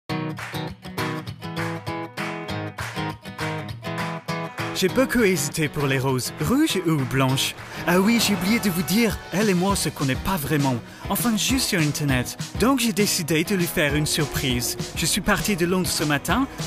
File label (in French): FR accent anglais Ton enjoue et emu; Groupe Keolis